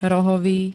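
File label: "rohový", Slovak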